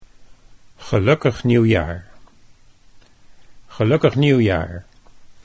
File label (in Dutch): "nieuwjaar", Dutch